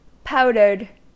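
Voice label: "powdered", US English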